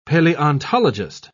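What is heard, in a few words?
"paleontologist", British English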